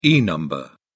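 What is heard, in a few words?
"e number", British English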